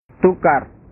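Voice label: "tukar", Indonesian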